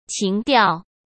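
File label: "情调", Mandarin Chinese; hsk; chinese-flashcards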